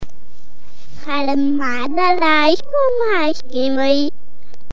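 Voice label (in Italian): Parlare come gli alieni (effetto reverse), Frase modificata; I bambini, con l'aiuto dell'insegnante, registrano e rielaborano la propria voce al comput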